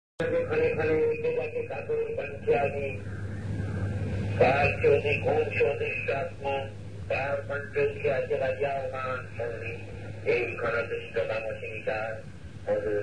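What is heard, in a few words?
Poem Recitation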